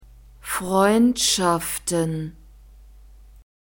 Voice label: "freundschaften", German

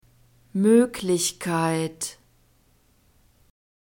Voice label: "moeglichkeit", german; nouns gender feminine

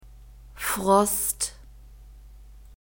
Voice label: "frost", German; masculine

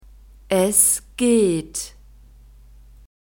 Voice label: "es geht", German

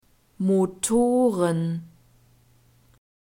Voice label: "motoren", german

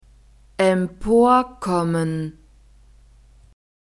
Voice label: "emporkommen", German

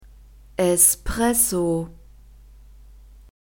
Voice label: "espresso", German; soft drinks new words

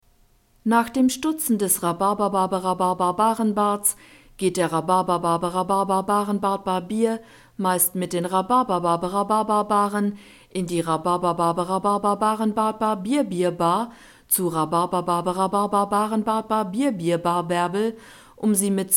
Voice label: tongue twisters, German; rhabarberbarbara

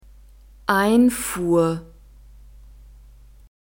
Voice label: "einfuhr", German

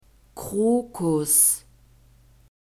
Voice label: "krokus", german; nouns gender feminine

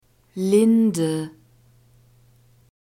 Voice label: "linde", german; nouns gender feminine

